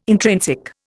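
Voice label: "intrinsic", Indian English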